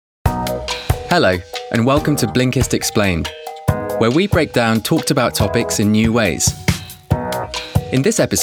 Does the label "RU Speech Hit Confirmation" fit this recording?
No